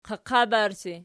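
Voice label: "qaqabarsi" nominative, Archi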